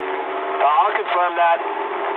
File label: eng player killed enemy mood med, speech eng; War Thunder